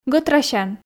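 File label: "гӧтрасян", Komi, female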